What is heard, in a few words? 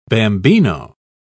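"bambino", British English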